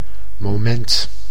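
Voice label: "moment", Dutch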